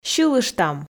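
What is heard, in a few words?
"сьылыштам", Komi, female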